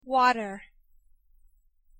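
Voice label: "Water", American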